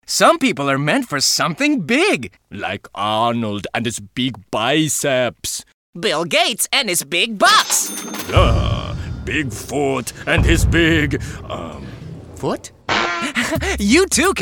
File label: English, StoryTelling